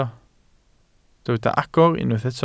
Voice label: "agor", Welsh

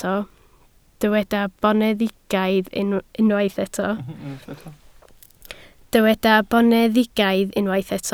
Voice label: "boneddigaidd", Welsh

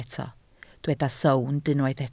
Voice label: "sownd" Welsh

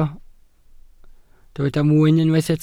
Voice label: "mwyn", Welsh

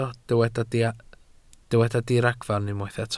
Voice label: "diragfarn" Welsh

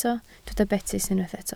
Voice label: "betys", Welsh

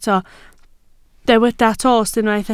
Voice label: "tost", Welsh

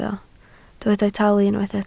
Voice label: "talu" Welsh

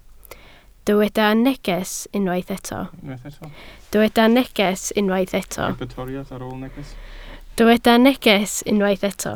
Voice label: "neges" Welsh

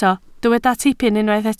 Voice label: "tipyn", Welsh

